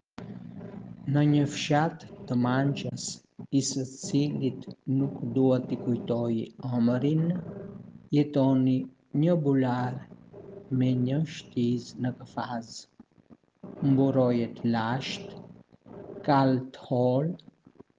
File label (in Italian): shqip; Chisciotte